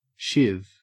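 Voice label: "shiv", Australian English; pronunciations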